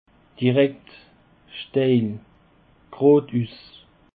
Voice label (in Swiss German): "direkt", alsace